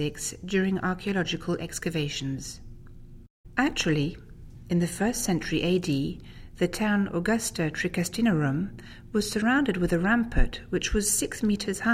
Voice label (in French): Ville gallo-romaine ANGLAIS